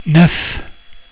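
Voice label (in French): "neuf", French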